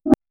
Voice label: wow surprised sound; human emotions

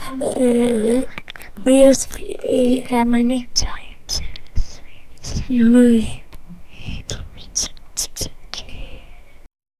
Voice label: a girl whispers he is

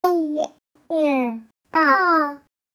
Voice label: say words in child voice

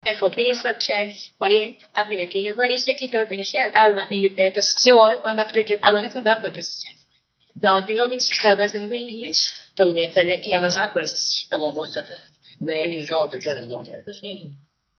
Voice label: it's showtime noon time sh, broadcast

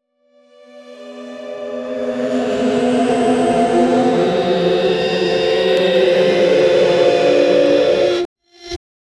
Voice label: chaotic layered voices re

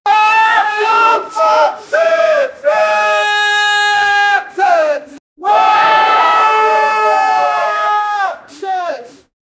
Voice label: two people shouting japanese

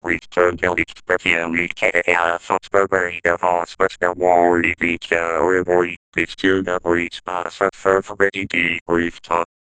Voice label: an ai making speaking a

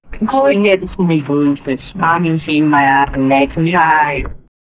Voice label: order has been canceled, business

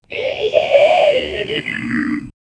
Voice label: something spookey saying

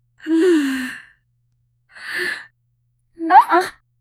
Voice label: a surprised anime style, expressions

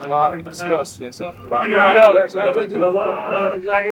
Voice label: discussion between soldie, conversation

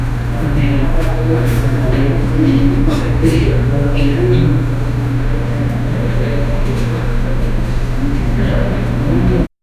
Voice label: quiet background talk in, room tone

